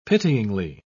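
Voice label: "pityingly", British English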